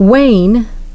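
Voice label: "wane", English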